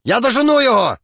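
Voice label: talk, Ukrainian; monsters; human; combat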